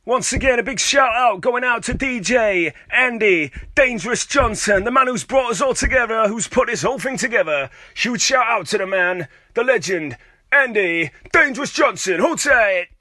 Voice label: dj shout out

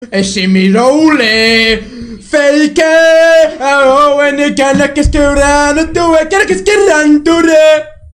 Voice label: chat

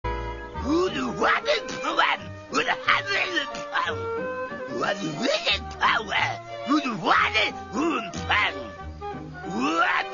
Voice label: tazmanian devil voice

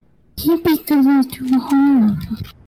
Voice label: uh oh

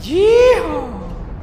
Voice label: yee haw with echo; YEEE HAW

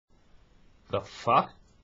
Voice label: voicemail

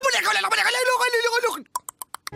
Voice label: confused sound

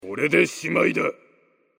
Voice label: korede shimaida male

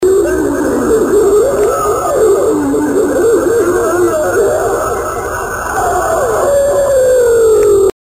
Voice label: distorted voices

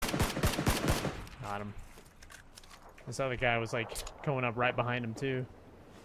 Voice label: mystery voice

